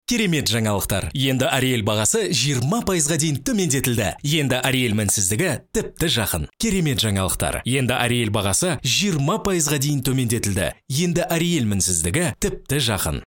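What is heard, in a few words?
kazakh male commercial; voice demos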